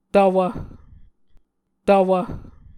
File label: "tvwv", Muscogee